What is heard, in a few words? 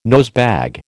"nosebag", American